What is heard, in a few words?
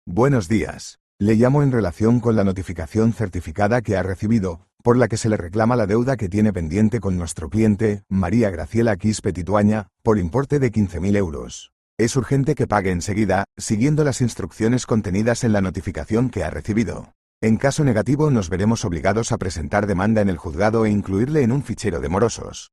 audio call